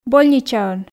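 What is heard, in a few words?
"больничаын", Komi, female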